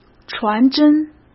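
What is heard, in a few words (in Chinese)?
"传真", Mandarin Chinese; hsk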